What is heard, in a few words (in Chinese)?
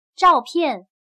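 "照片", Chinese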